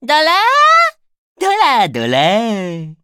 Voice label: victory jp